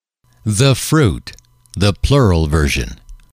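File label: "the fruit", English, male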